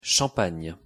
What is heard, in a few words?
pronunciation fr champagne